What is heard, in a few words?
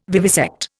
"vivisect", Indian